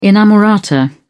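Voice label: "inamorata", British English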